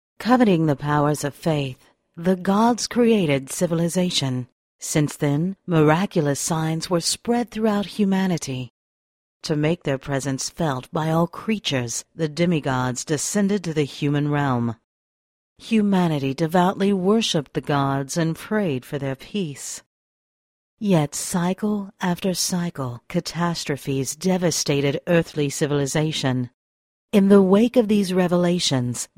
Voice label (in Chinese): 外籍女; God of War Game Trailer